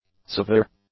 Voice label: "severe", English; adjectives